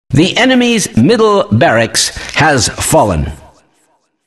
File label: announcer rax fall enm mid; kleiner